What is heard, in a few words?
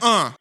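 "uh", male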